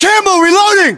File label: inform reloading, english, us